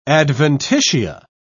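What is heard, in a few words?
"adventitia", British English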